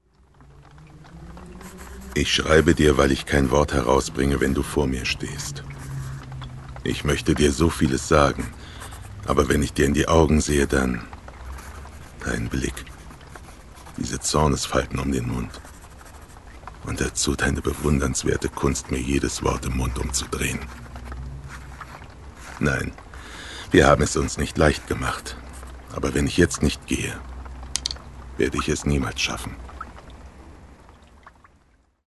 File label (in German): Sentimentaler Abschiedsbrief Synchron